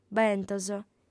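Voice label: "bentos", female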